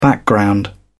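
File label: "background", British English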